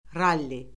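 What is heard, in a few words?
"ralli", Azerbaijani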